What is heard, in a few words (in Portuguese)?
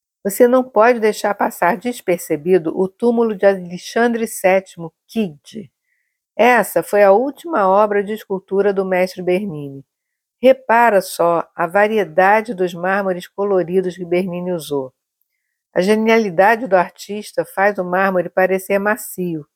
Audioguia resumido; Basilica; Marmores